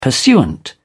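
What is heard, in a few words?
"pursuant", British English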